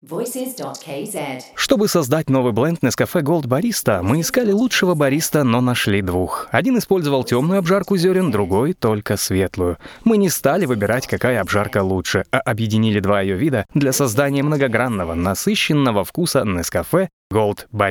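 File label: Russian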